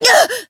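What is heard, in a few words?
damaged, Japanese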